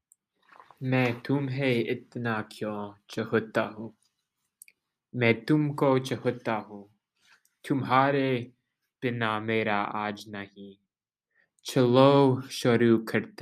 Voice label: Poem recite; Hindi